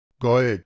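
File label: "gol", Proto-Indo-European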